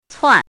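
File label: "cuan" fourth tone, pinyin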